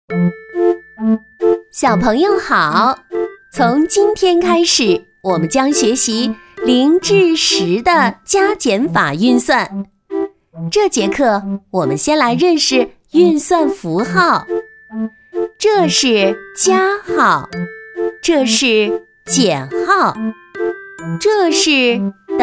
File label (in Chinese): 女 儿童课件